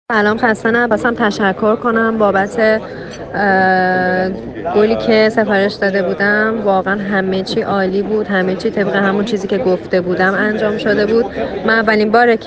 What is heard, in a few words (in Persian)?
صدای مشتری از امریکا رضایت از خرید باکس گل حرف r همراه با بادکنک